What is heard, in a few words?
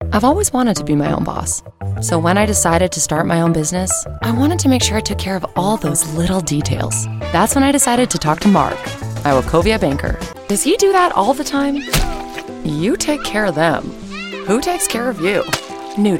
commercial, commercial women